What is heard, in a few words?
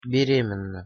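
"beremenna", Russian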